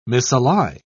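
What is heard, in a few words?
"misally", British English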